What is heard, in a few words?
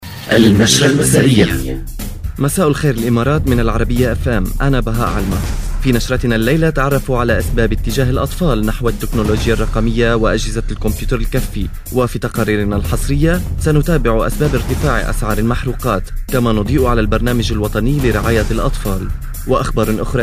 Arabic Radio TV Commercial Voice